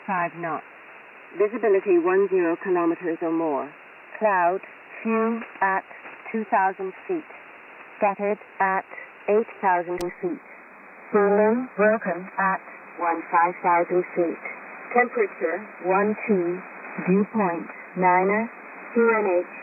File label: Shannon Volmet USB; 5505khz